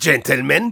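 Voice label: battle cry, German; Spy; "Gentlemen?"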